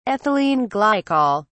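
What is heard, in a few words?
"ethylene glycol", British English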